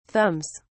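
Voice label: "thumbs", British English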